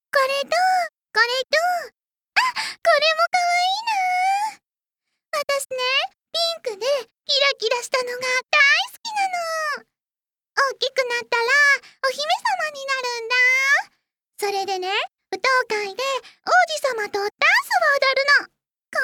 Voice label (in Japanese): キャラ 少女